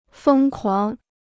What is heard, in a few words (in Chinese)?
"疯狂", Chinese